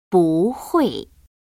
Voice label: "buhui", Chinese; 중국어 경성 연습